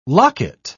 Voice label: "locket", pronounce

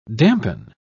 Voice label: "dampen", pronounce

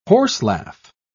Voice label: "horselaugh", pronounce

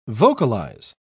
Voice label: "vocalize", pronounce

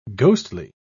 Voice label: "ghostly", pronounce

